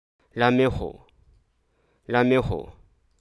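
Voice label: Hruso Aka; compare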